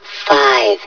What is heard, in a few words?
"five", hev suit; Half Life Alyx